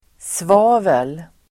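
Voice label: "svavel", Swedish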